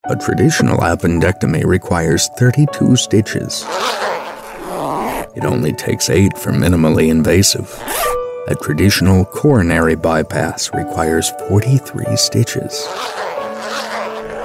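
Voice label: soft spoken informative thoughtful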